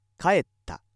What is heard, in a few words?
male